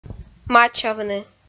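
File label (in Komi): "мачавны", Komi-Zyrian, female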